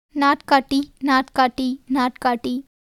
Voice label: Tamil

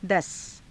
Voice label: "dasa", Hindi

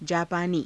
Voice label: "jaapaanii", Hindi

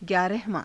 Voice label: "gyaarahavaa~", Hindi

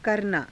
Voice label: "karanaa", Hindi; करना; たびたび･･･する

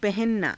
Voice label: "pahananaa", Hindi

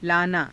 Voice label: "laanaa", Hindi

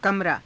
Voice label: "kamaraa", Hindi

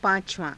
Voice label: "paa~cavaa~", Hindi; पाँचवाँ; [形]５番目の

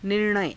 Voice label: "nir naya", Hindi

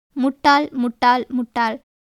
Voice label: Tamil

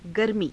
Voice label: "garmii", Hindi

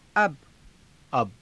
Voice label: "aba", hindi; अब; [副]今，もう

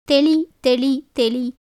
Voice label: Tamil

